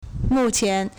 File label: "mu4qian2", Chinese